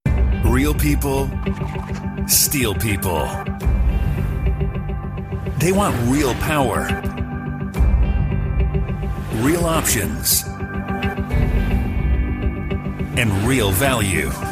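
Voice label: M, Canadian English, male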